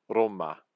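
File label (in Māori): "roma", Māori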